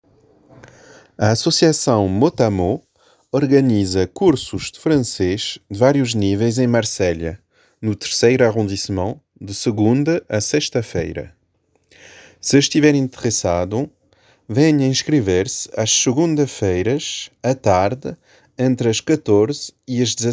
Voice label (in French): portugais; MOTAMOT